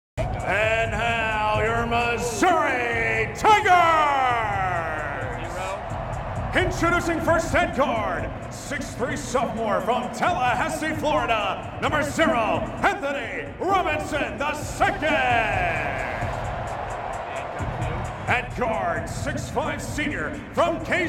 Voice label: Starting Lineup, Public Address Announcer; MizzouMBB